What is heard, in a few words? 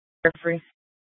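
police dispatch, Scottsdale PD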